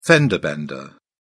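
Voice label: "fender-bender", English, British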